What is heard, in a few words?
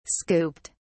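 "scooped", British English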